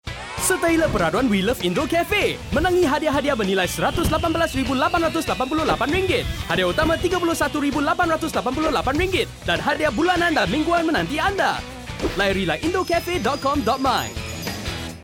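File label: Malay mono; IndoCafe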